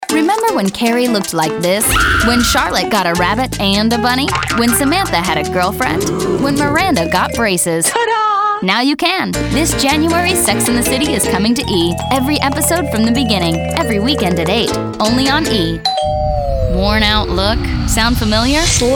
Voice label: promo, promos women